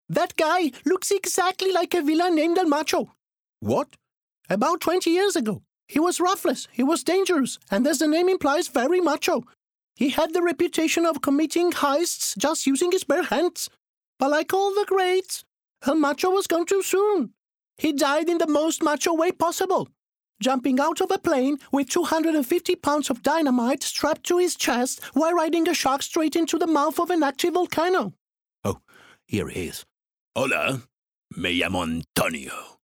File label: male, Italian, English character voices; minions; devine voices